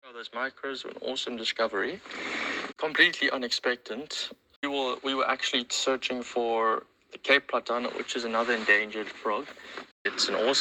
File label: voicenote